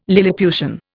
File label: "lilliputian", Indian